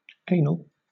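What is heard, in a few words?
"anal", British English; pronunciations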